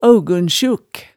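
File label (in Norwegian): "aaugunsjuk", Hemsedal dialect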